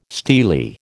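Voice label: "steely", American English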